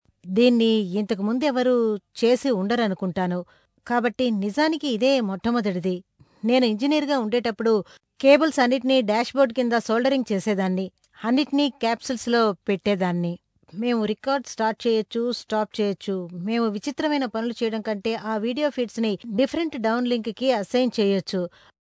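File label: telugu female voice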